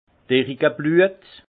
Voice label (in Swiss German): "derickebluet", alsace; vin